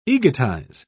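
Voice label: "egotize", British English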